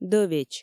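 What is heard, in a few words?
"дӧвеч", Komi, female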